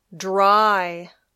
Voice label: "dry", English